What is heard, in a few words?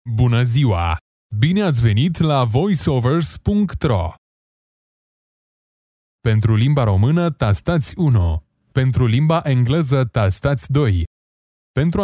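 romanian male ivr; voice demos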